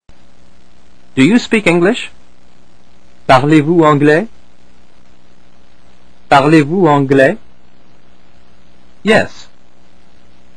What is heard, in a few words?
French Lesson 7 Approaching Someone For Help; French Course - Lesson 7; Girls! Say It Au Francais!; SKRI-TECH Online Free French Course